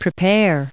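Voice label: "preparing", us English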